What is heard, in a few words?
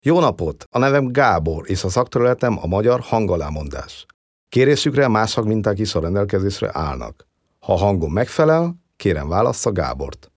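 Hungarian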